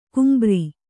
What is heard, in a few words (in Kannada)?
"ಗಂಭೀರ", Kannada